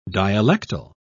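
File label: "dialectal", British English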